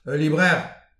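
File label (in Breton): "ul lip revr", Breton; petit precis de prononciation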